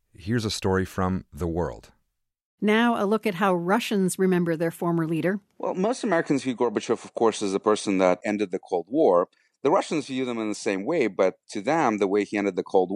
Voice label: Broadcast Interview; Gorbachev